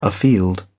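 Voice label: "afield", British English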